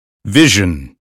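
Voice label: "vision", American English; 英语音标发音（剑桥版）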